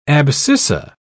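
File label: "abscissa", English, British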